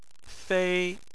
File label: "fei1", Chinese